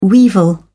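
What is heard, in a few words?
"weevil", British English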